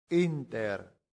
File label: "inter", latin; santillana